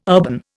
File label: "urban", Indian